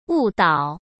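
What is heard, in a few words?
"误导", Mandarin Chinese; hsk; chinese flashcards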